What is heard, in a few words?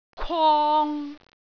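"kwong3", Cantonese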